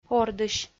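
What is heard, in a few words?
"ордысь", Komi-Zyrian, female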